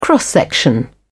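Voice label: "cross-section", British English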